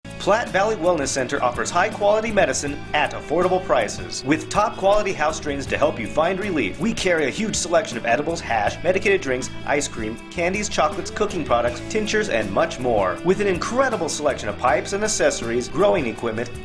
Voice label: Commercial; Platte Valley